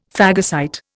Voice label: "phagocyte", Indian; Dictionary

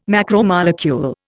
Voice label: "macromolecule", Indian

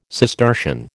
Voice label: "Cistercian", American

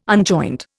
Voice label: "unjoint", Indian

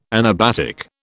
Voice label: "anabatic", British

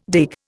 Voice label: "dig", Indian

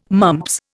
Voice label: "mumps", Indian

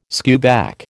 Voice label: "skewback", American

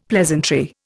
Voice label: "pleasantry", Indian; Dictionary

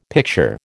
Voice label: "picture", American

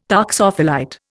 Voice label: "toxophilite", Indian

